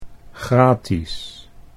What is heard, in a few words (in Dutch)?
"gratis", Dutch